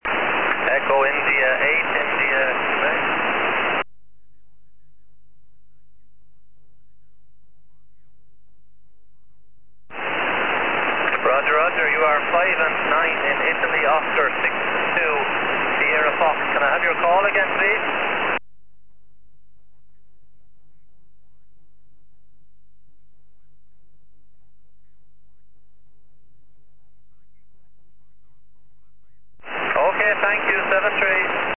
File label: 4m ssb